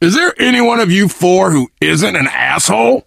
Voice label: initial meeting, survivor voice biker